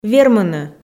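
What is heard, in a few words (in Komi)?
"вермыны", Komi, female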